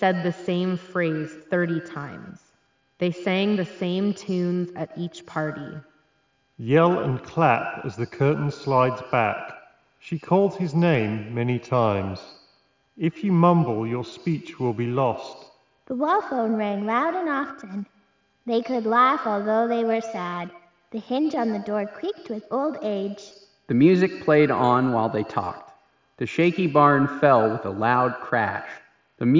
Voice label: Yamaha YHE700 Reverb microphone